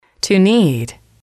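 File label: "to need", English, female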